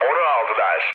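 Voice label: Turkish aircraft destroyed, mood low, speech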